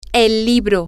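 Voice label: the book, Spanish, female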